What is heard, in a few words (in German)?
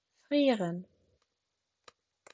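"frieren", German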